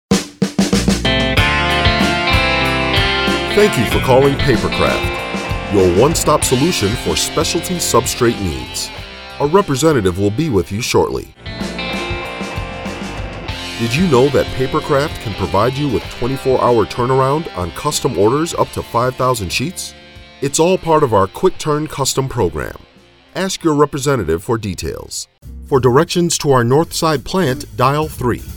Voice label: US PHONE